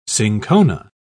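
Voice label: "cinchona", British English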